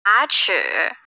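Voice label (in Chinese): "ya2chi3", Mandarin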